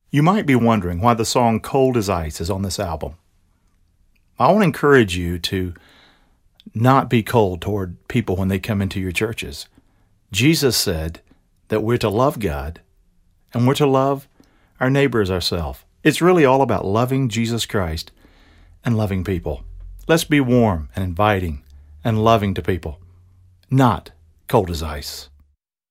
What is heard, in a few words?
narrative